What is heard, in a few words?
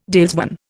"dalesman", Indian